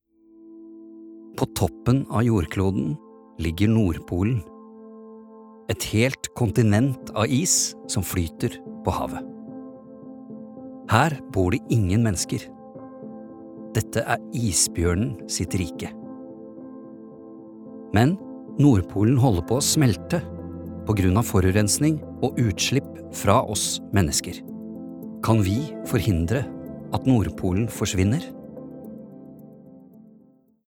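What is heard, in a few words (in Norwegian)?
dokumentar, Norwegian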